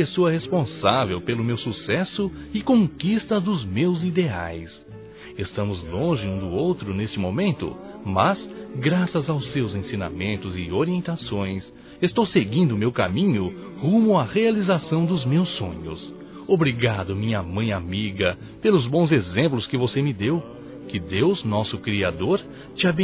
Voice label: Aniversário Mãe Distante Masculino; Aniversários; Aniv Familiar

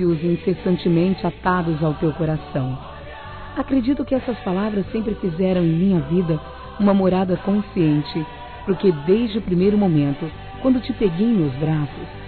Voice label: Trilha ANIV. FILHO F, Telemensagens, Gospel, fem; Telemensagens 2017

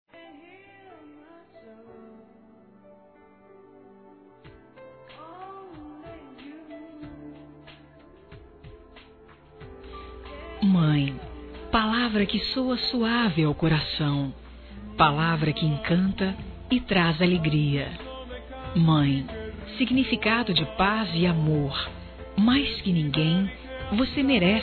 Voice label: GERAL F; Telemensagens 2017

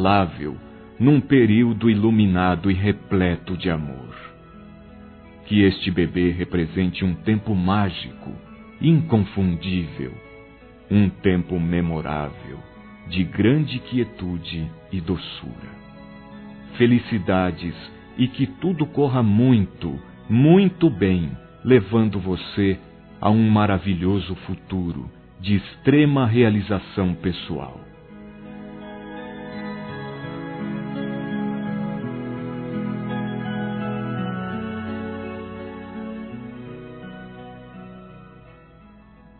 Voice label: PARABÉNS P GRAVIDEZ M

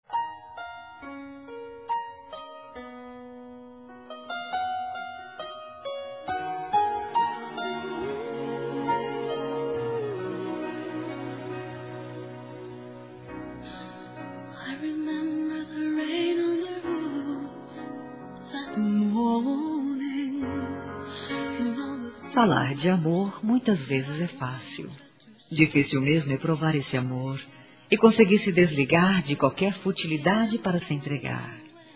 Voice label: FELIZ PASCOA ROMANTICO F, fem; Telemensagens

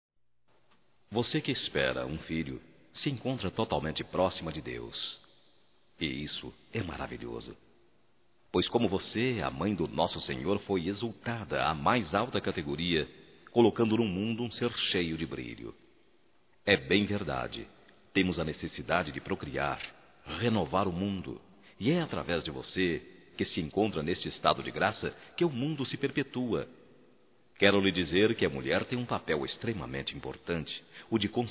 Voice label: GRAVIDEZ (M); masc; Telemensagens 2017

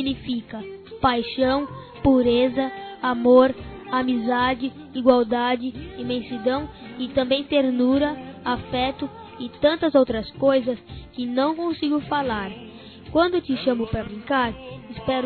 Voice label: ANIV. PAPAI CRIANÇA M; Telemensagens 2017; Aniversários; Aniversário Infantil

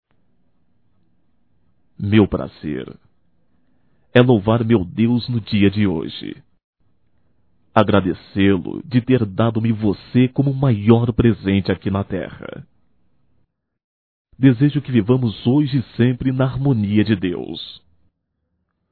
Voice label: Romântico Masculino, Natal; Telemensagens 2017; Natal & Ano Novo

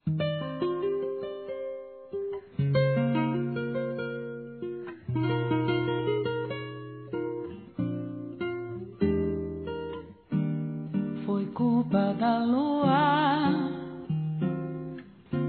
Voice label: Volte te Quero Masculino; Telemensagens; Pedidos

